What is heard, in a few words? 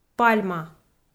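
"пальма", Komi, female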